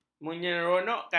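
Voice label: "menyeronokkan", Malay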